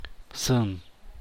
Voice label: "bzun", San Mateo Macuilxochitl Zapotec; arrive; llegar